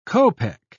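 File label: "kopec", English, British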